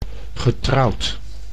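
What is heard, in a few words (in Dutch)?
"getrouwd", Dutch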